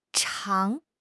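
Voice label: "chang2", Mandarin Chinese; HSK2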